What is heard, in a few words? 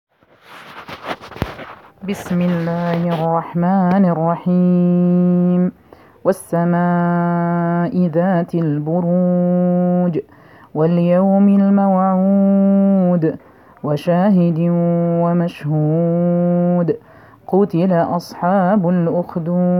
voice, recitation